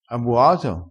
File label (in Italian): "bua", vocabolario dialettale crespinese